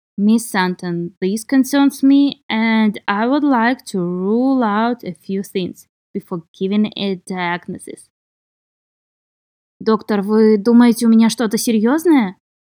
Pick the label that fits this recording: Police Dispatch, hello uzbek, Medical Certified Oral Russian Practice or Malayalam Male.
Medical Certified Oral Russian Practice